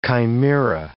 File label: "chimaera", English